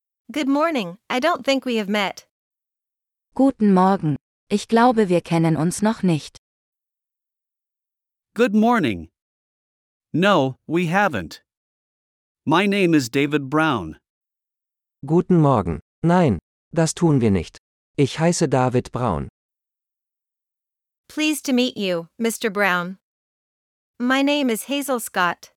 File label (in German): Dialog mit Uebersetzungen; Formelles Vorstellen; wie-geht-es-ihnen